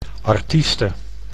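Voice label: "artieste", Dutch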